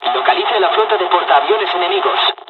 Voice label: bombing, dialogs spanish; midway; War Thunder